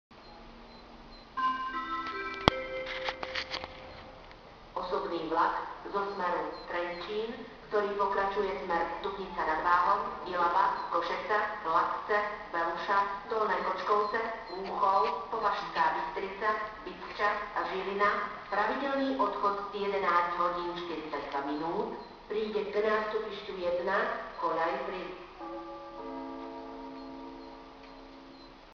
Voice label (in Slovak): Hlasenie stanicneho rozhlasu